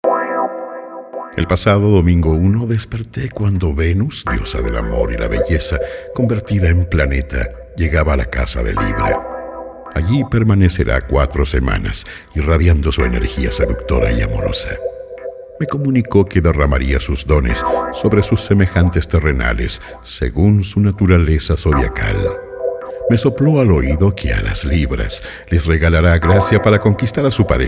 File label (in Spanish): voz en off columna horoscopo